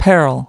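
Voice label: "peril", English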